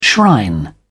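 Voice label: "shrine", British English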